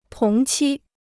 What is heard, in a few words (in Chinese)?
"同期", Chinese